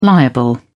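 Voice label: "liable", British English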